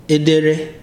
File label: "church service", Ibibio